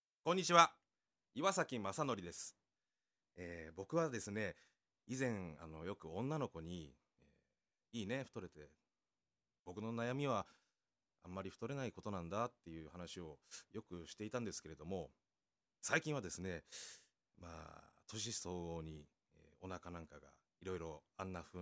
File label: freetalk, male voice